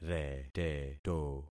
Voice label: "reteto", concat syllables; Affixing experiment